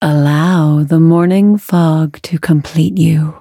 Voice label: level up, en; Cookie Run

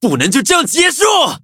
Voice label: spirit, zh-hant; Cookie Run

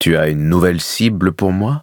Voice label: upgrade, fr; Cookie Run

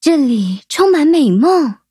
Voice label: levelup zh-hant; Cookie Run

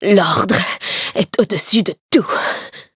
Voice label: defeat, fr; cookie run

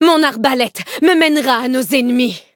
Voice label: levelup, fr; cookie run

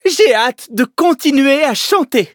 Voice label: levelup, fr

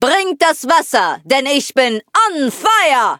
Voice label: loading, de; cookie run

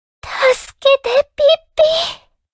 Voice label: Cookie hit, ja; Cookie Run

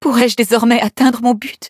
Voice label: levelup, fr; cookie run

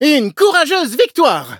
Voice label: victory, fr; cookie run

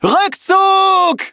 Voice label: defeat, de; Cookie Run

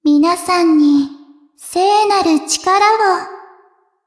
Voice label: upgrade, ja; cookie run

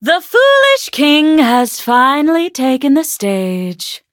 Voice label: loading, en; Cookie Run

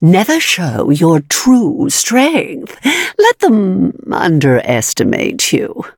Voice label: level up, en; Cookie Run

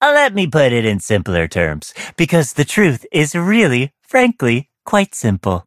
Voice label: levelup, en; Cookie Run

